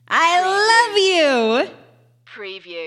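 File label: Female Love You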